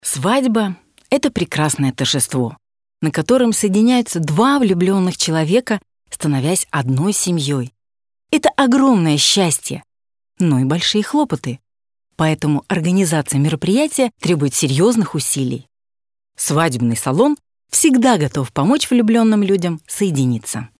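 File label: Russian female Inspirational, vo examples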